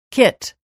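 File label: "kit", English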